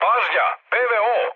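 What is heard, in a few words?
Serbian damaged aa mood med, speech; War Thunder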